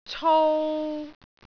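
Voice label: "cou3", Cantonese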